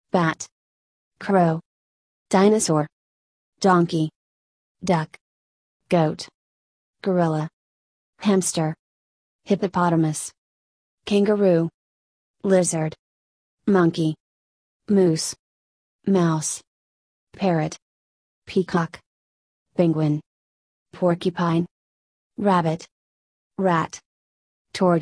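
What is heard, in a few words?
American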